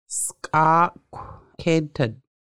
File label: "pillow", Lushootseed